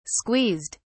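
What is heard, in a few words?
"squeezed", British English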